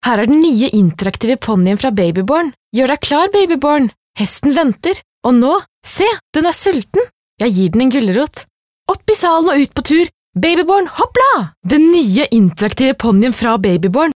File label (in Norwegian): Norsk Baby born